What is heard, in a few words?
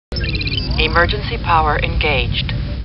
Computer Voice; Star Trek; StarTrekLCAR